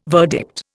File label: "verdict", Indian